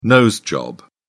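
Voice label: "nose job", British English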